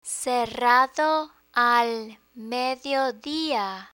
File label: "cerrado al mediodia", Spanish